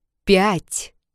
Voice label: numbers in Russian 5

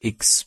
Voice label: "x" pronunciation de

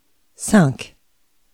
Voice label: numbers in French 5

